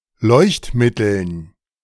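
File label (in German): "Leuchtmitteln", German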